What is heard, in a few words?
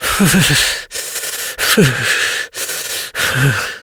Operator Voice; Warframe; Operator Mission; Generic Cold Shiver